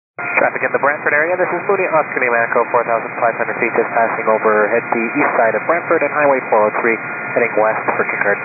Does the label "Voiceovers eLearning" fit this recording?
No